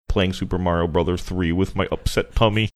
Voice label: funny

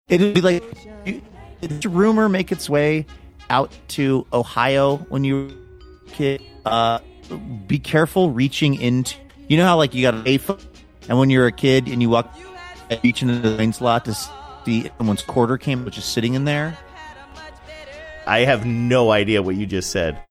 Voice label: connection voice breaking up

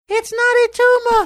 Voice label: saying it's not a tumor funny

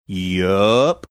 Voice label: drawn out "yup"

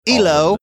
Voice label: saying eh lo british

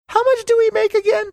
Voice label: high pitched

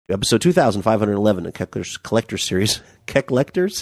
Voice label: having trouble saying collectors

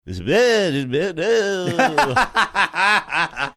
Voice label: saying gibberish

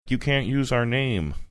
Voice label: quietly saying you cant use our name

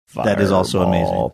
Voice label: quietly saying fireball

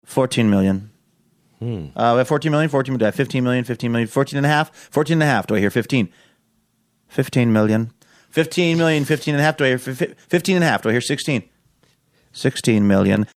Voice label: reenacting phone bidder auction

